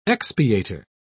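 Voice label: "expiator", English, British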